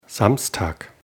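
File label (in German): "Samstag", German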